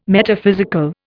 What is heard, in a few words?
"Metaphysical", Indian